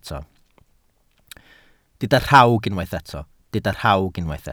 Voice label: "rhawg", Welsh